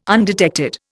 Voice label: "undetected", Indian

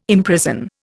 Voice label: "imprison", Indian

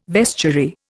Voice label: "vestiary", Indian

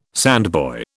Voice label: "sandboy", British

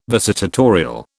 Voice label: "visitatorial", British

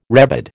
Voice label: "rebid", American

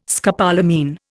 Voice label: "scopolamine", Indian

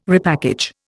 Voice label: "repackage", Indian

